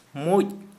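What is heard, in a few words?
Santali male ant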